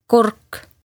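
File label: "kurk", Estonian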